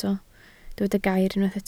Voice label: "gair", Welsh